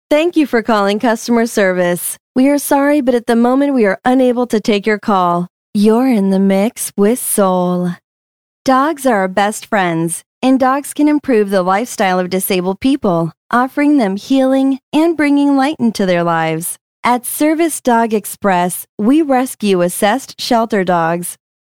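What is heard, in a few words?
female English American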